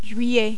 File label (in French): "juillet", French; useful french phrases